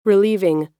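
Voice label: "relieving", American English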